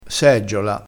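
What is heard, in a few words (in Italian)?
"seggiola", dialetto crespinese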